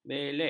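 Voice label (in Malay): "belek", Malay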